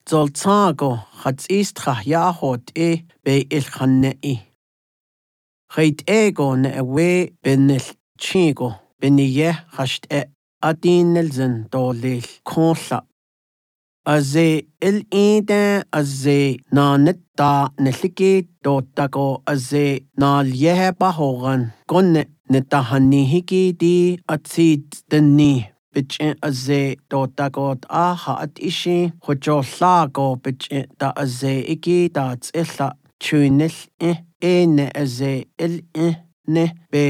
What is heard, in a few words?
Navajo